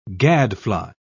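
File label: "gadfly", British English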